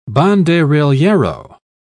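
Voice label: "banderillero", British English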